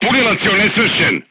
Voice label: voice message charger contused, crew tanks serbian